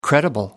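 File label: "credible", English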